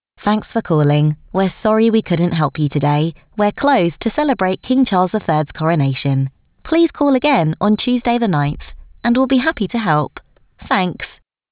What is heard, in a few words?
Professional no music; 8kHz 16bit; Kings Coronation; king charles iii's coronation